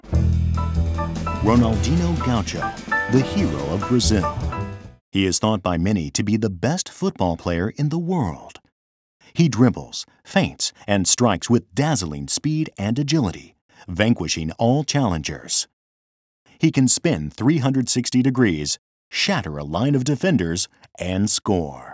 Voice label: Documentary; NHK Special; Ronaldino Documentary; NHKドキュメンタリー番組「ロナウジーニョ」ナレーション